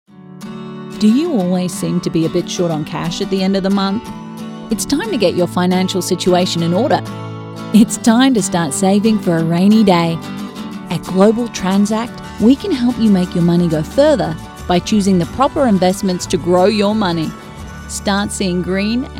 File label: Accent AUS